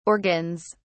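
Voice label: "organs", British English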